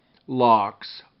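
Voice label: "locks", English; RhymeTest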